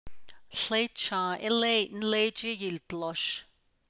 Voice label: There is a dog walking over there, navajo particle; léi’ a certain, some, a, an